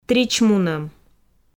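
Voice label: "тричмунӧм", Komi, female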